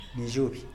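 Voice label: "nizhuby", Tlacochahuaya Zapotec; scorpion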